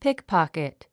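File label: "pickpocket", English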